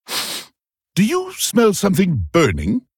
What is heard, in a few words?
Male Pandaren Play